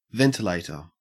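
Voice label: "ventilator", Australian English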